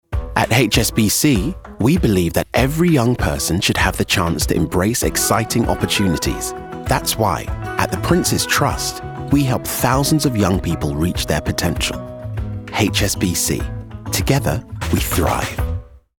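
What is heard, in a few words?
Commercial; HSBC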